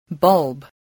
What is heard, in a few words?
"bulb", spelling